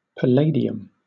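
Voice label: "palladium", British English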